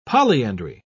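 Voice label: "polyandry", British English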